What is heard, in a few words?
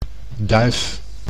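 "duif", Dutch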